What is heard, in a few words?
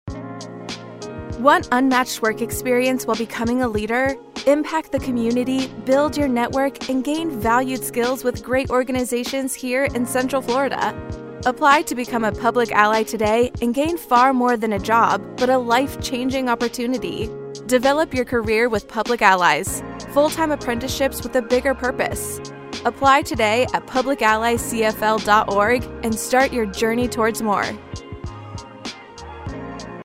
Radio Spot English